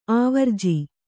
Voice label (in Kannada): "ಆವರ್ಜಾ", Kannada